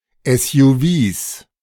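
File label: "SUVs", German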